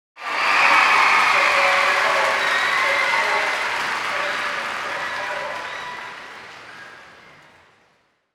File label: female commentator